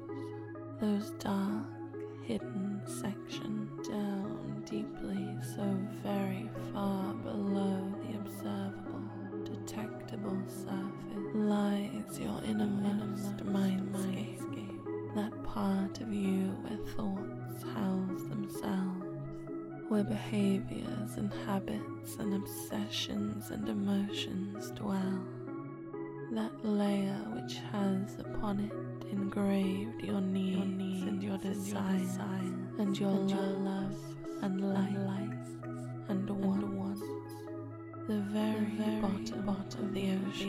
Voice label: Hypnotic Acceptance SFX With Music